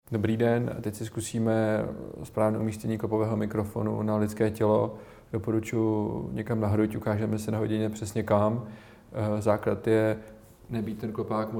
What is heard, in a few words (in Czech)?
lav mic ukazka